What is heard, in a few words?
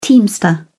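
"teamster", British English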